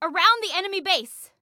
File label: Enemy Around Enemy Base, Female; Female 02